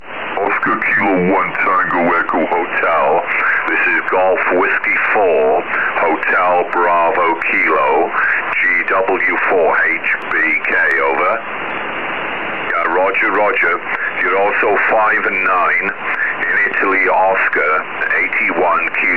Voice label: qso 4m